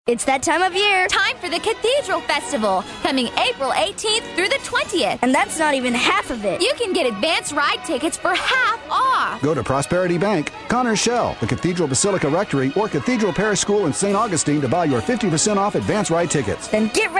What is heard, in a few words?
RADIO; Cathedral Parish Presale